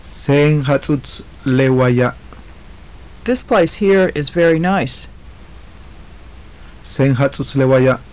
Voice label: phrases, maya